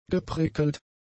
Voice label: "geprickelt", German; adjectives